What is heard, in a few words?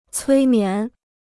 "催眠", Chinese